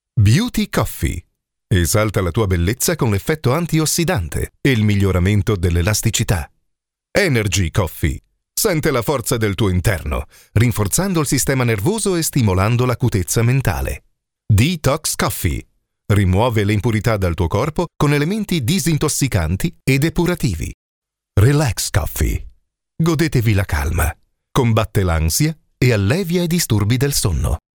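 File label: Italian male voice over, sales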